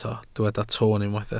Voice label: "toon", Welsh